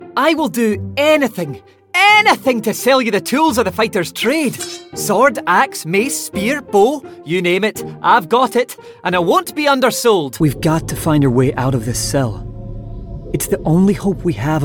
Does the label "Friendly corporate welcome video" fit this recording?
No